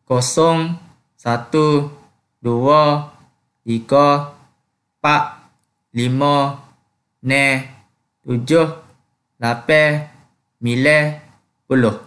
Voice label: Kelantan-Pattani Malay